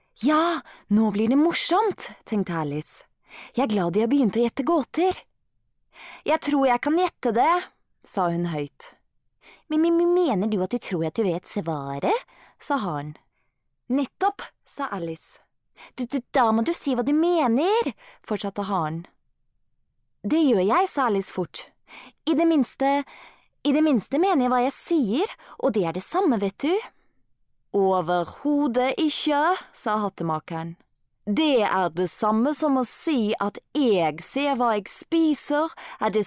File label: norwegian audiobooks, norwegian voice actors; Norvégien